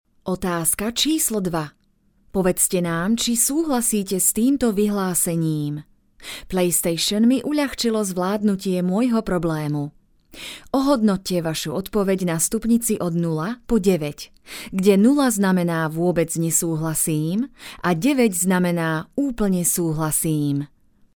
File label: slovak voiceover locutora eslovaca